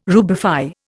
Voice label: "rubify", Indian